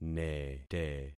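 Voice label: "nete", concatenated syllables; Affixing experiment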